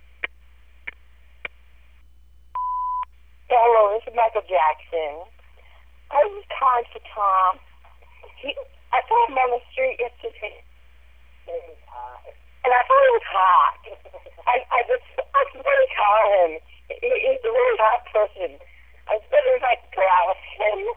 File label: Threatening Child Phone Message